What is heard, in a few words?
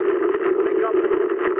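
aircraft destroyed mood low, speech English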